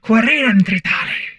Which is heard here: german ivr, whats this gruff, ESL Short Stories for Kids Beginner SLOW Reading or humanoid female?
humanoid female